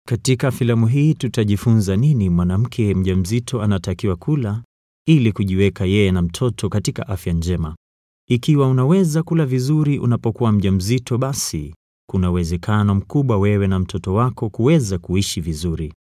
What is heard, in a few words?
Swahili Male